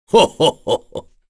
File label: Vox Happy kr; heroes; Dakaris; kingsraid